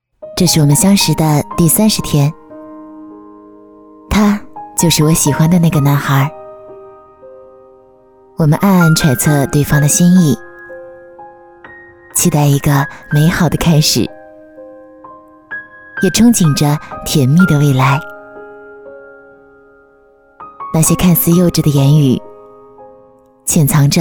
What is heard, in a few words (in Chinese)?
女 旁白独白; 我喜欢的那个男孩